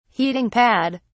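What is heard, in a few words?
"heating pad", British English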